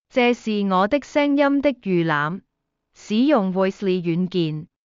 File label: Cantonese; Hiugaai